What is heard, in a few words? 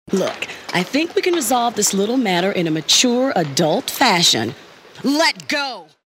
attitude